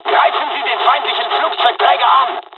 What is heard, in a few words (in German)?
bombing, dialogs german; midway